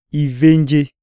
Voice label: wound, iyasa